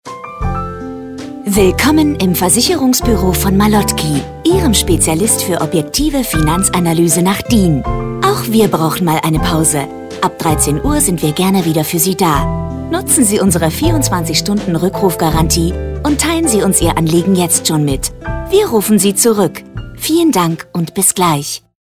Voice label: Ansage Pause; Telefonansage Versicherung

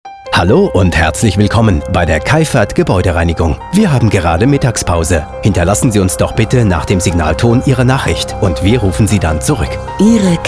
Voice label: AB, Telefonansagen; Keifert; Telefonansage Reinigungsdienst – Gebäudereinigung – Facility Service Management

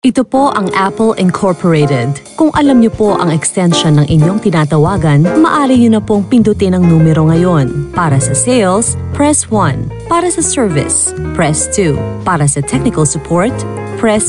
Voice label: telefonansagen sprecher phl; Native Speaker

